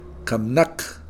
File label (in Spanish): estar muerto, Kakchikel